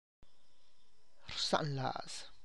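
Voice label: Tlacochahuaya Zapotec; abandons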